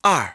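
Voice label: "two", English; iOS 4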